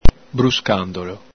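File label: "bruscandolo", Veneto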